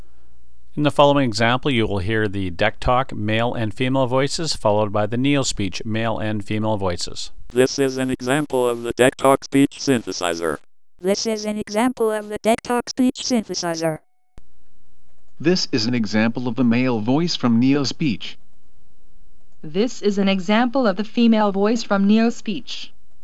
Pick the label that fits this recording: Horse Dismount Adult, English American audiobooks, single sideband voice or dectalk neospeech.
dectalk neospeech